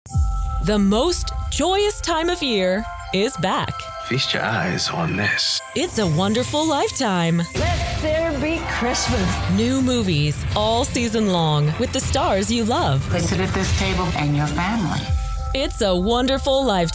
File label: VO Promo